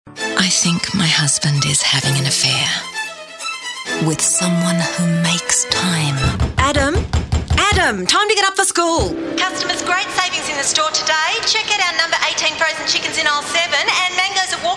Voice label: character